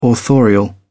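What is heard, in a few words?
"authorial", British English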